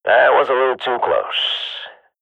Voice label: radio bot end close; Counter Strike Model Pack; CS:GO

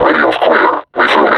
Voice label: reconnoiter search, vo combine suppressor

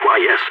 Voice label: agree, balkan

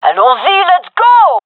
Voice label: request move, gendermerie fem; vo

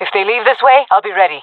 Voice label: guarding escape zone, jungle fem; player vo jungle fem

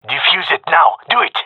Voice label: cover defuse, male; vo

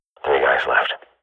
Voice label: radio bot kill count, swat; Counter Strike Model Pack; CS:GO

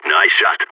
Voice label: nice shot, balkan; vo

